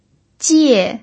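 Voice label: "jie" fourth tone, pinyin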